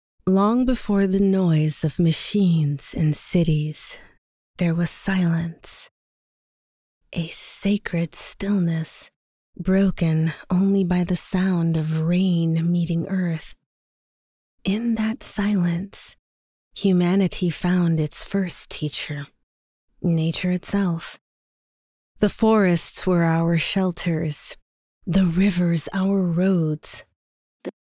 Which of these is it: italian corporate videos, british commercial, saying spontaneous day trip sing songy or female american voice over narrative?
female american voice over narrative